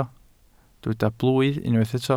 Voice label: "blwydd", welsh